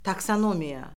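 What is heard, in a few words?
"taksonomiya", Azerbaijani; Orfoqrafiya Lüğəti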